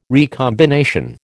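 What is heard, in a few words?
"recombination", American English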